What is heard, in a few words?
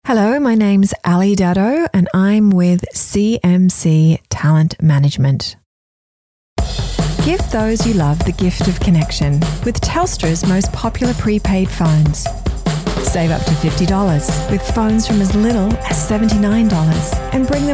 voice over; VO demo; commercial, corporate, narration